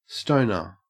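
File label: "stoner", Australian English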